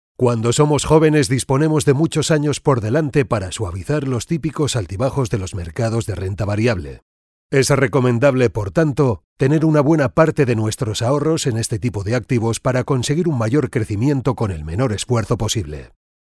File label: locutor spanish voiceover